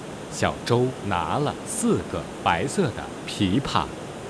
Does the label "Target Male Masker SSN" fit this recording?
Yes